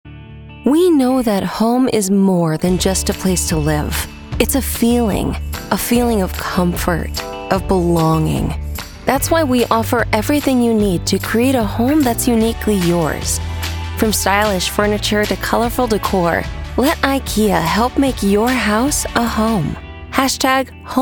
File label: emotional real storytelling natural inspiring, commercial promo; ikea